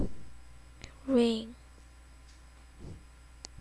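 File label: "ring", spoken word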